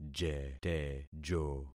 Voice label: "jetejo", concat syllables; Affixing experiment

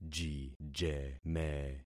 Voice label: "jijeme", concat syllables; Affixing experiment

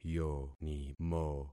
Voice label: "yonimo", concat syllables; Affixing experiment

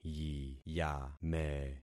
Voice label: "yiyame", concat syllables; Affixing experiment

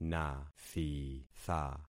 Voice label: "nathitha", concat syllables; Affixing experiment

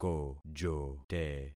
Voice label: "kojote", concat syllables; Affixing experiment

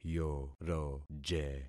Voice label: "yoroje", concat syllables; Affixing experiment

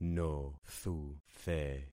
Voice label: "nothuthe", concat syllables; Affixing experiment

